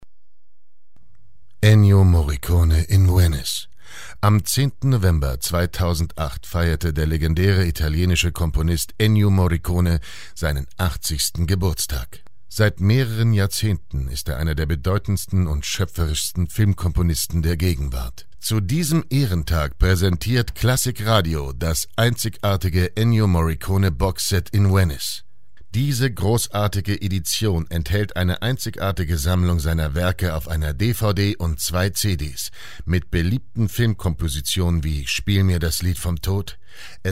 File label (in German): kommentar